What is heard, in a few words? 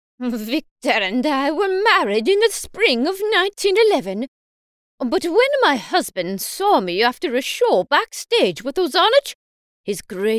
Various Women